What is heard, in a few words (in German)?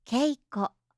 "keiko", japanische